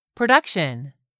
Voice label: "production", english; 3000 words

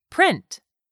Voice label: "print", English; 3000 words

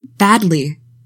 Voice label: "BADLY", english; 3000 words

